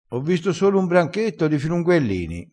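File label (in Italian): "filunguellin o", vocabolario dialettale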